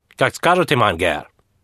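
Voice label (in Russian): medbrat, male nurse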